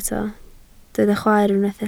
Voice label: "chwaer", Welsh